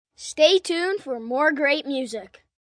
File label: Stay Tuned For Mor, Sales Pitch, 1 boy, 10 yrs